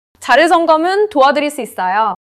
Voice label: neutral, monologue